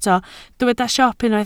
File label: "siop", Welsh